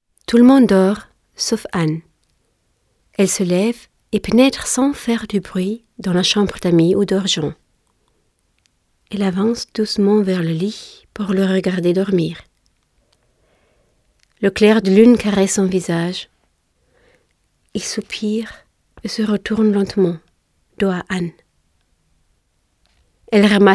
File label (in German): Hörbuch französisch